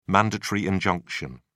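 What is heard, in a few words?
"mandatory injunction", British English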